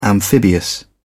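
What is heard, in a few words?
"amphibious", British English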